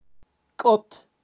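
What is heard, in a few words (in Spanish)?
"kot" aguila, kaqchikel, pronunciacion de animales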